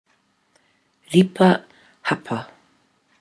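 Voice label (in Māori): "ripa hapa", Māori word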